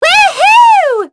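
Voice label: vox Happy, English; Sonia; kings raid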